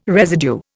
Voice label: "residue", Indian

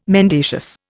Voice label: "mendacious", Indian

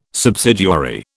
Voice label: "subsidiary", British

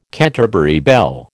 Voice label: "Canterbury bell", American

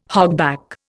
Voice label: "hogback", Indian

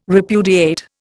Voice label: "repudiate", Indian

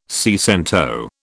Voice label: "seicento", British

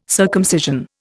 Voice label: "circumcision", Indian

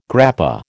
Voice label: "grappa", American

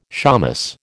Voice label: "shamus", American

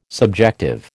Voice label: "subjective", American

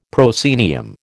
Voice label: "proscenium", American

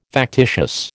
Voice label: "factitious", American

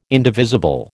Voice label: "indivisible", American

